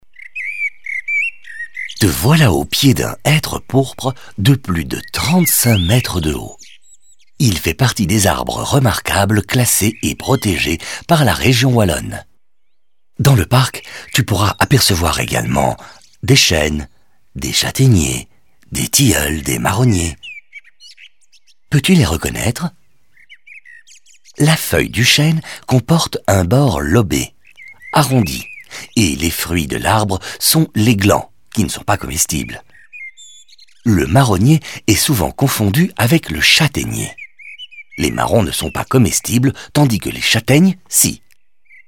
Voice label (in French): audioguide fr enfant; modave